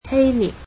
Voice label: "Greece", Cantonese